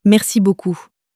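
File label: "merci beaucoup", French, female; flashcards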